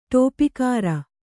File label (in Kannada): "ಡಪ್ಪುಗಾರ", Kannada